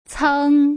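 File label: "ceng", Mandarin Chinese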